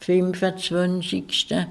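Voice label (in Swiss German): "fìmfazwànzigschta", Alsatian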